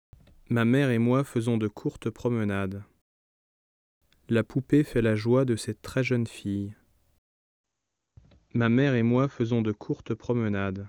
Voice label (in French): voix HD